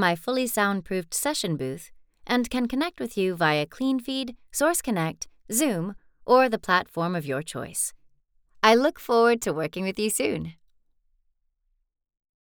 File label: home studio raw sample narrator voiceover voice actor